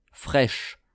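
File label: "fraiche", French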